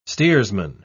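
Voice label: "steersman", British English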